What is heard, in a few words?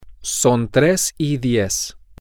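its 310, Spanish, male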